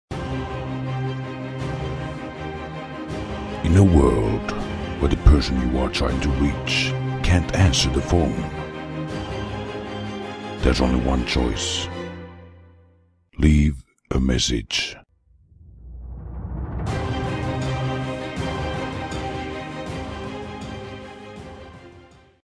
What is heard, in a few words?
voice greeting